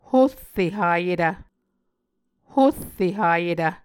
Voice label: "horre hayetv", Muscogee